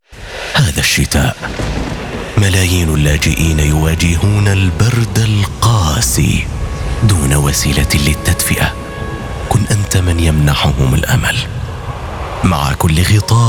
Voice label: Commercial Deep Sad Sympathetic Warm Standard Arabic; Taalof El Kheir